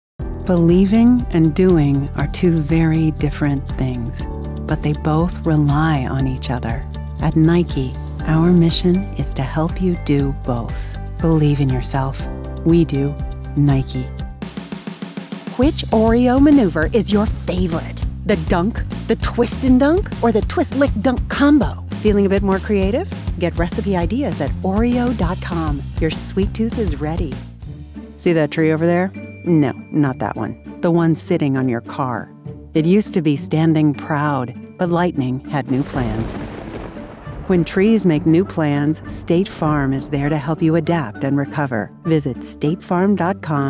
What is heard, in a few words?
Commercial